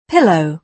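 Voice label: "pillow", British English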